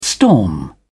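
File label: "storm", English, British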